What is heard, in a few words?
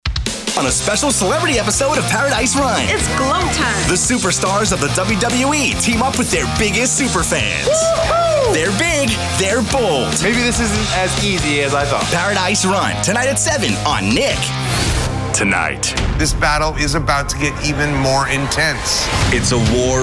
TV Promo